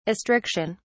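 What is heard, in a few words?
"astriction", British English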